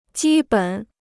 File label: "基本", Chinese